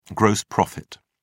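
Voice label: "gross profit", British English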